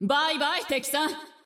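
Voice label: Japanese; 索杰恩（守望先锋2）; 终极技能; バイバイ敵さん！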